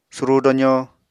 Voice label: "surúu dozó", Apatani